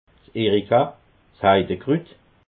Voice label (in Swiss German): "erika", alsace